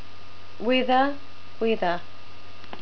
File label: "weather", NZ English